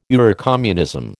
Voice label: "Eurocommunism", American